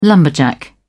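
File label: "lumberjack", British English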